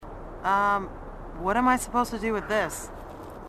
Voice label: female marine, sassy